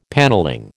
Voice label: "panelling", American